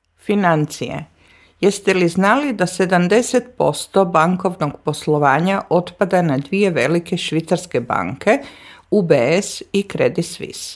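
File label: Croatian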